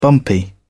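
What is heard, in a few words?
"bumpy", British English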